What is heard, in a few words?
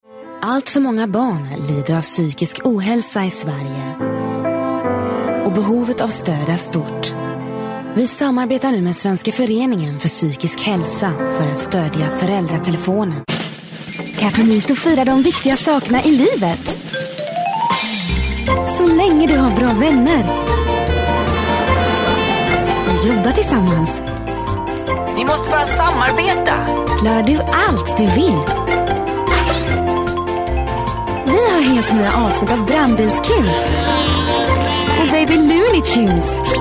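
Swedish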